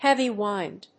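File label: "heavy wind", English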